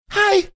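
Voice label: "hi", male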